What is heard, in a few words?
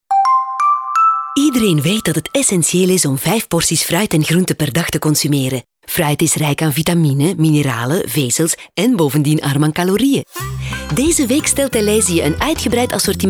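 neutral, Female Flemish voice over; Delhaize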